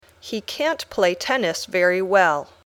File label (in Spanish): Dictado